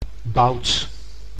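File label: "boud", Dutch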